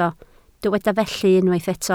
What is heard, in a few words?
"felly", Welsh